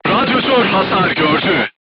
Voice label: voice message radiator damaged, crew tanks turkish